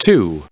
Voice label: "two" en-us recite

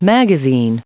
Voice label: "magazine", en-us

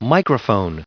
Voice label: "microphone", en-us

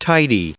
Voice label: "tidy" en-us recite

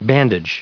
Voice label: "bandage", en-us

